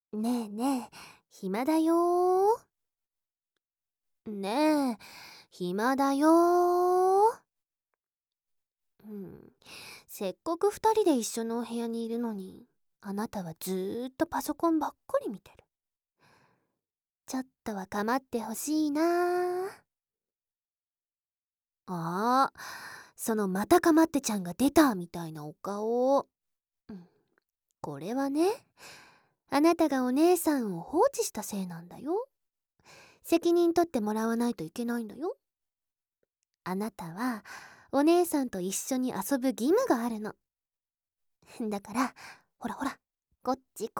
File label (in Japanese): 左耳; かまってちゃんなお姉さんとのいちゃいちゃらぶらぶ; コインゲーム